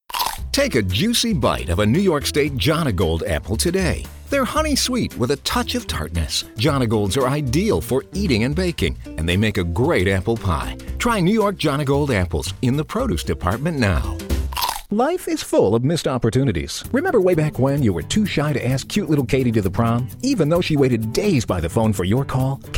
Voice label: Radio TV Commercial

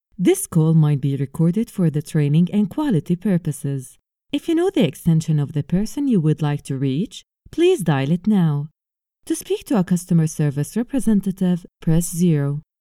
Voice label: English IVR

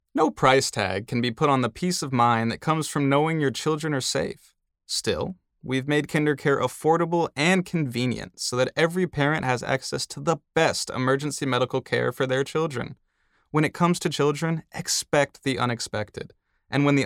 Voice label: Voice Over Commercial; KinderKare

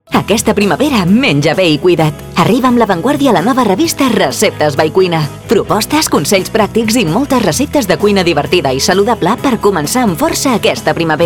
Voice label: Catalan Commercial; La Vanguardia Cuines

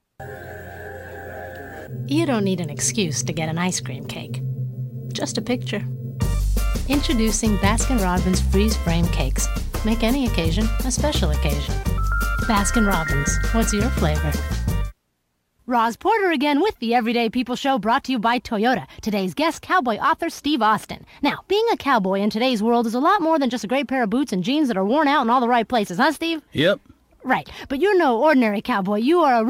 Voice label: voice over

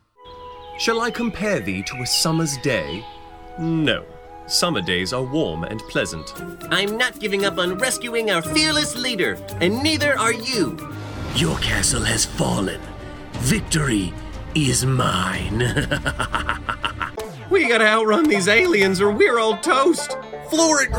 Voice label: Character; Voice Realm

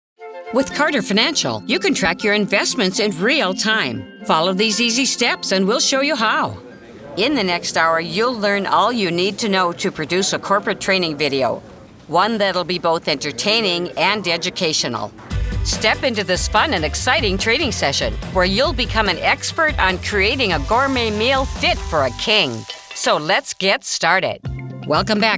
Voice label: E-learning

